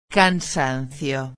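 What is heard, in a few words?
"cansancio", Spanish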